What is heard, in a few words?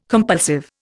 "compulsive", Indian